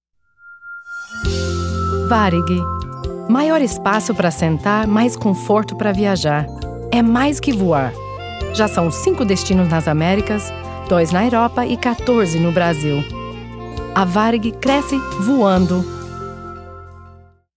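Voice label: POR BR Comm Warm, Portuguese Brazilian commercial warm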